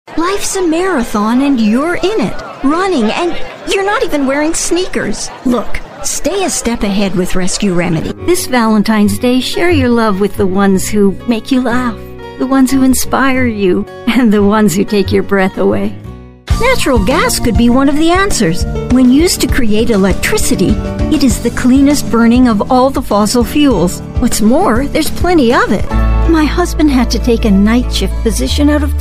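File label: english canadian female narration; voice demos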